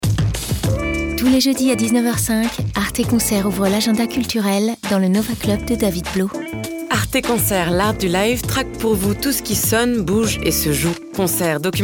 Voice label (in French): spot radio; arte